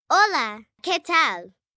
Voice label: Spanish Hi How R U, Greetings, 1 girl, 9 yrs., Spanish; Talking Sound Effects